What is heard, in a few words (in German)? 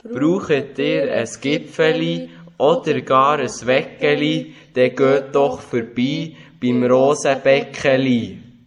Werbespot; Rosebeck; Muensingen